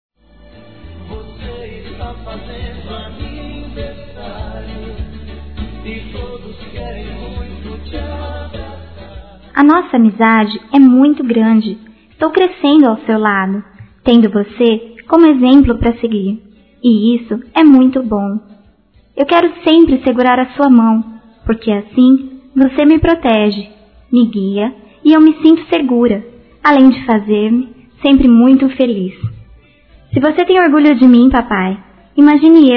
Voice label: Aniv. Pai Feminino, Telemensagens; Aniversário Infantil

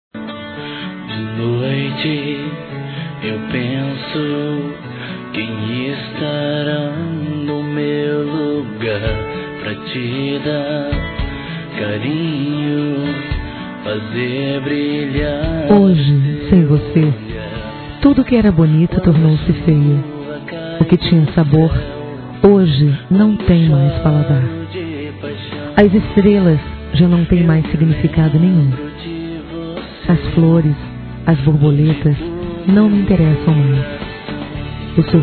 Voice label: VOLTA PRA MIM F; Dia Dos namorados; fem; Telemensagens 2017

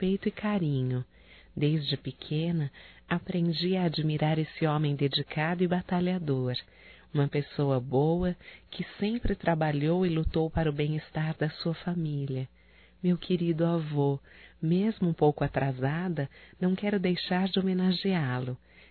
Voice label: Aniversário Feminino, Telemensagens; Telemensagens 2017; Aniversarios

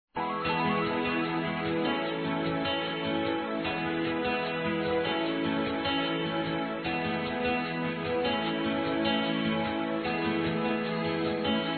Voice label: NOS DESEJAMOS F, Telemensagens, Dia das Mães; Telemensagens 2017

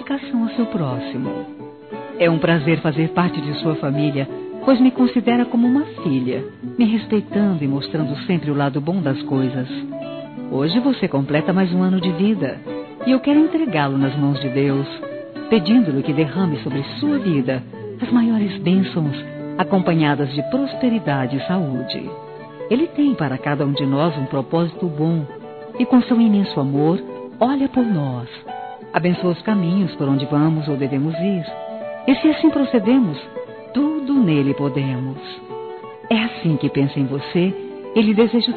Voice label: ANIV. SOGRO F

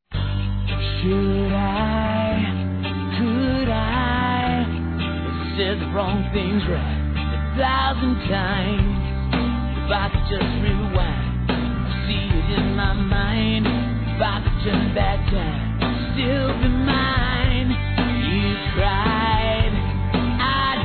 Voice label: NAMORADA M; Aniversários; ANIVERSARIO romantico; masc

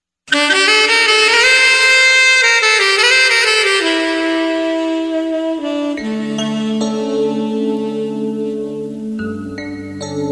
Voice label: DESCULPA POR NAO TER IDO FEM; Telemensagens; Desculpas; Telemensagens 2017

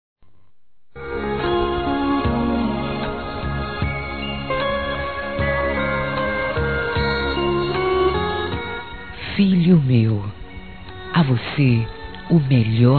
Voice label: ANIV. DE FILHO F, Gospel, fem; Telemensagens; Telemensagens 2017

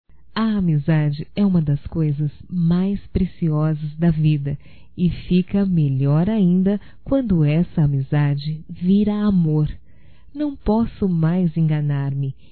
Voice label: DECLARAÇAO (F); Românticas

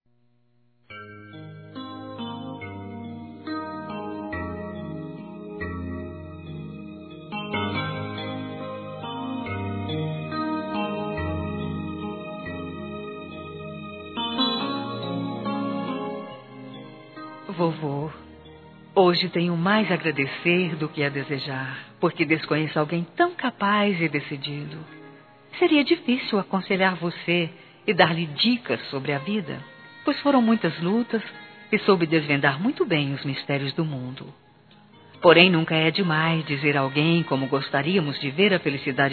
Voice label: Aniversário Avô Feminino

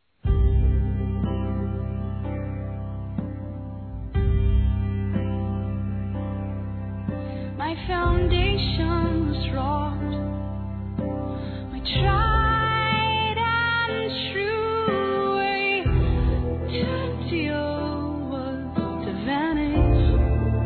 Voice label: Aniversário Ex Esposo Feminino; Aniversários; Aniversários diversos

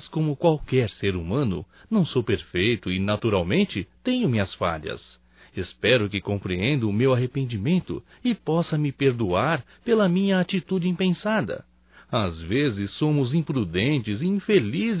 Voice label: desculpas filho, masc; Telemensagens 2017

